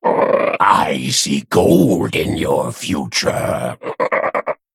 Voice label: Male Arakkoa Play

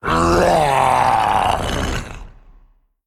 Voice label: Male Colossus Death

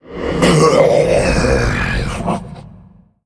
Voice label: Male Faceless Death

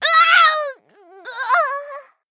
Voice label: Female Goblin Death

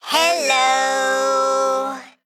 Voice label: Male Mech; Annoy-O

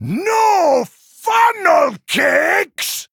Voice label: Male Dwarf Attack

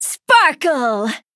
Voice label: Female Human Attack

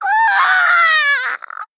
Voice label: Female Goblin Death

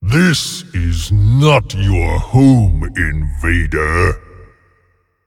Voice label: Male Ancient Play

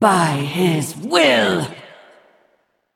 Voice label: Female Val Kyr Attack

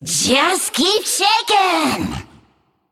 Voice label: Female Naga Centaur Play

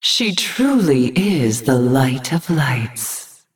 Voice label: Female Naga; Azshara

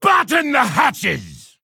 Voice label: Male Orc Attack

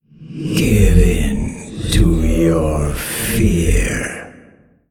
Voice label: Male Old God In Play

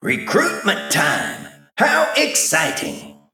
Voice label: Male Mech Hire

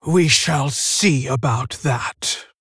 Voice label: Female Tauren; Helka; Valeera

